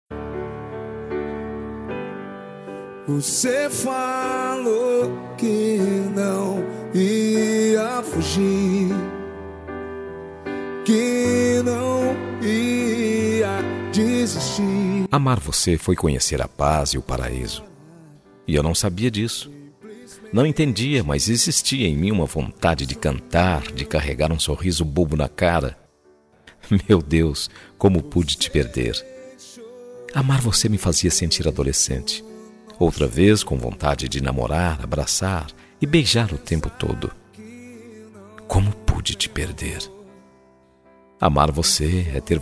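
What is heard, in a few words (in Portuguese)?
RECONCILIACAO male, Telemensagem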